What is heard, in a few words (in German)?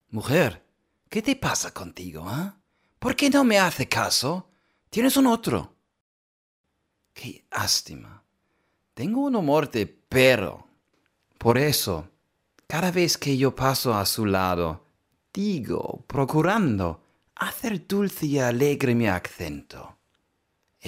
spanisch; LOFT VOICES